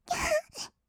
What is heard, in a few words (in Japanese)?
きゃはっ, kawaii voice; ASMR; 色々なワンショット